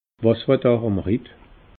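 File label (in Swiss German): "was fer e daa", Alsatian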